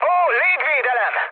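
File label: Hungarian damaged aa mood med, speech; War Thunder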